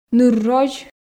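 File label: "ныр розь", Komi, female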